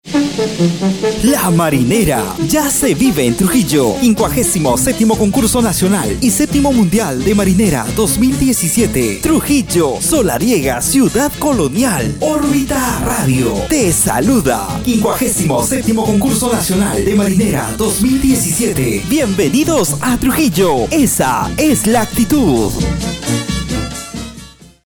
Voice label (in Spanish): PROMOCIONAL TRUJILLO MARINERA